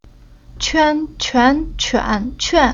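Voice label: "Quan", Chinese syllable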